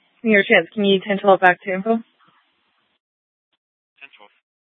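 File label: police dispatch, Scottsdale PD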